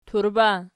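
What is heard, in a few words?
"turba" nominative, Archi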